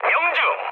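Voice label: Korean bomb success mood med, speech; War Thunder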